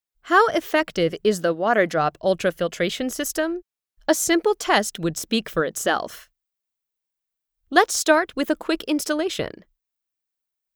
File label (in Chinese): 外籍女 产品讲解